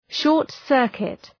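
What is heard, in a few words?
"short-circuit", English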